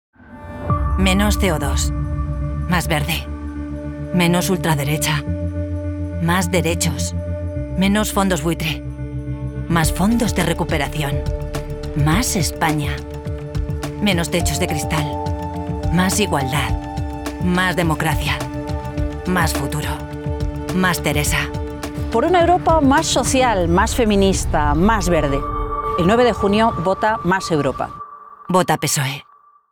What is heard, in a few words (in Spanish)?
SPOT CUÑA DE RADIO CASTELLANO; PSOE; EUROPEAS 2024